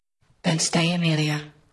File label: Voice Acting es F